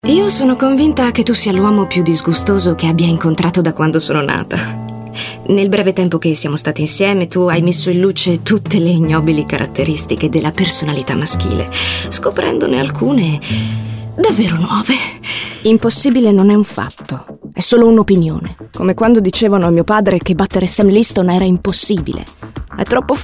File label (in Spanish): Femenino